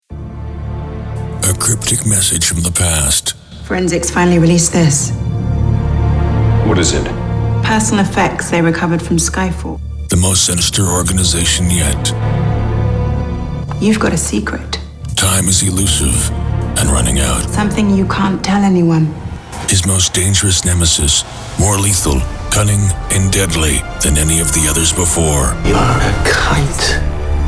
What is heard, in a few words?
Trailer, english american; General public